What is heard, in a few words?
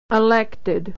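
"elected", American English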